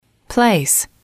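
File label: "place", English, female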